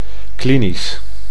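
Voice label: "klinisch", Dutch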